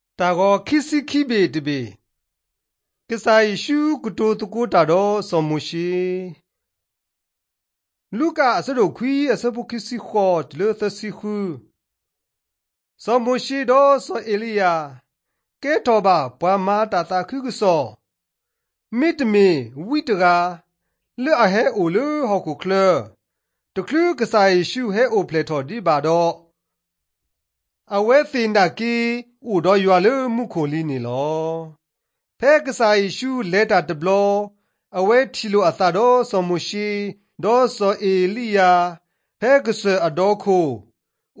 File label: drama, karen sgaw; mighty men of god; bible